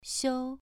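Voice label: "xiu" Mandarin Chinese, second tone, pronunciation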